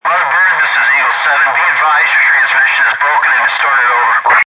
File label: Military Radio Communication Short Wave Radio Walkie Talkie; Radio Dispatch; Lynne Music